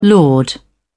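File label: "laud", British English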